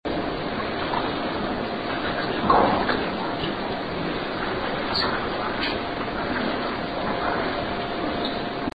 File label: Basement talking Cold; Basement2